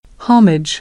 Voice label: "homage", American; ɑː - ɒ

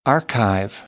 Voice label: "archive", American English

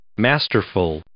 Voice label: "masterful", English

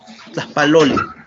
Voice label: "tlajpaloli", Náhuatl; saludo; greeting

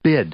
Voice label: "bid", English; verb; i-ɪ

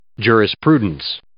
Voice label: "jurisprudence", English

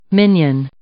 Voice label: "minion", English

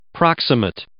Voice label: "proximate", English

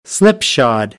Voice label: "slipshod", American, English; ɑː - ɒ

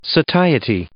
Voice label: "satiety", English

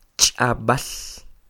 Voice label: "ch'ab'äl", Quiché; lenguaje; language; INALI; UTexas; CHQETA

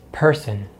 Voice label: "person", English

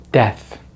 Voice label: "death", English; Consonants in American English; easypronunciation

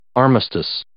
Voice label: "armistice", English; Ingles

